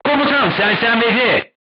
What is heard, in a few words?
voice message commander contused, turkish